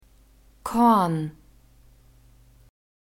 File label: "korn", german